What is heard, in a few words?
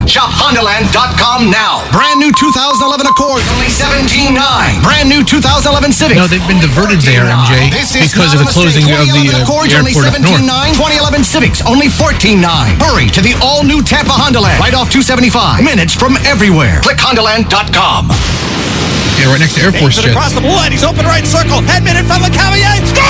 commercials; Listen closely and you can hear voice bleed through the commercials.